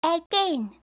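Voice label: "eighteen", English; eng suuji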